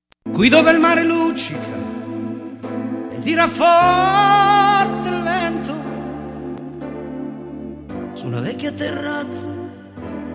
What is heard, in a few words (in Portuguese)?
Amizade Com Pedido de Desculpas, F